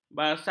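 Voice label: "basalt", Malay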